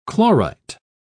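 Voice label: "chlorite", English, British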